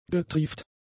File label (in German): "getrieft", german; adjectives